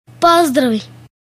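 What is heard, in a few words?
congratulation, Scottish Gaelic; gcompris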